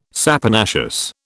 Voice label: "saponaceous", British

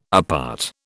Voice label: "apart", British

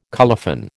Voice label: "colophon", American

